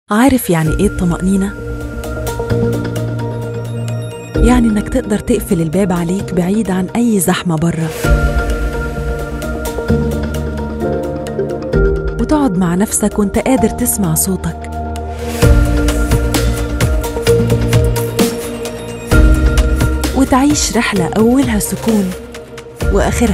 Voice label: Commercial; Jazeera Paints

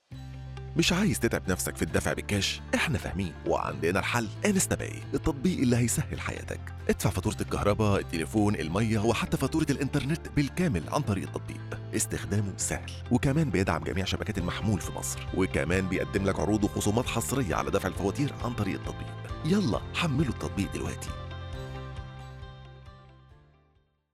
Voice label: Arabic Egyptian Dialect; InstaPay

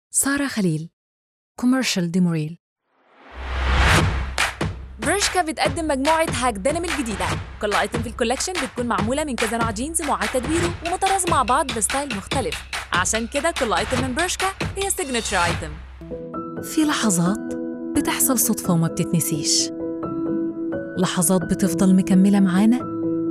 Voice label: Egyptian Commercial, Arabic

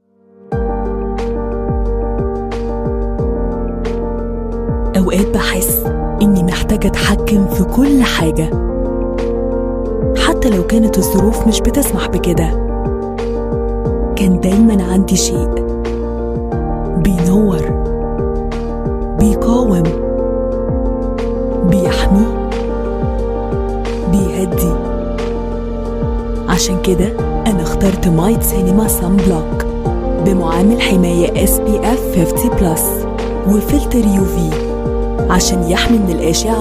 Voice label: Commercial, Arabic; Might Cinmea

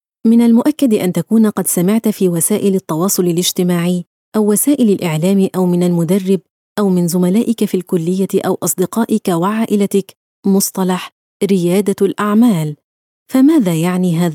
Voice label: Modern standard Arabic E-learning; مشروع التميز

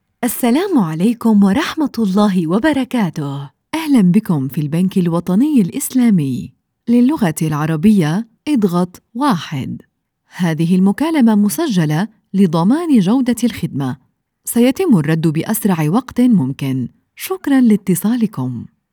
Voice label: IVR, Arabic

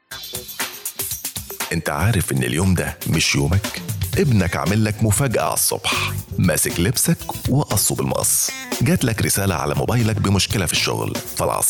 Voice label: Coffee VO Arabic Egyptian Dialect; Abou Aouf

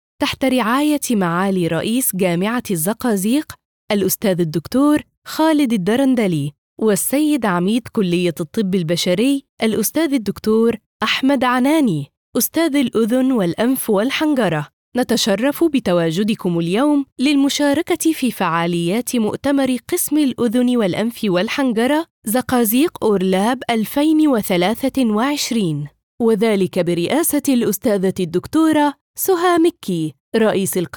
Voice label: Egyptian arabic female

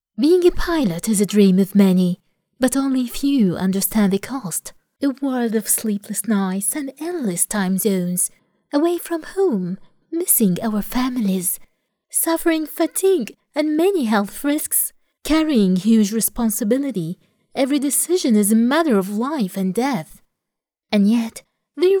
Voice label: En american Flying

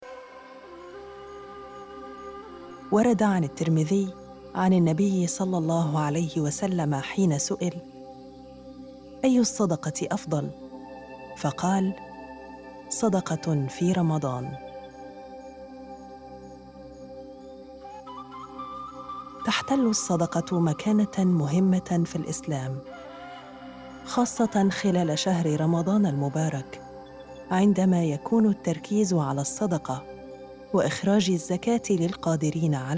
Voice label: MSA Meditation

